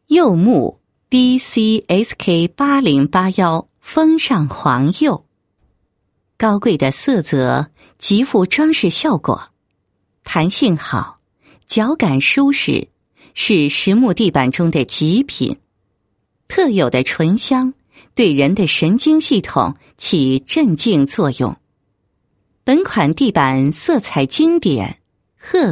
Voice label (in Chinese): 女-广告-多层地板简介